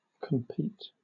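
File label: "compete", British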